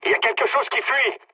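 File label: French tank damaged mood high; War Thunder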